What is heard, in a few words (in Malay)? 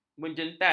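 "menjentat", Malay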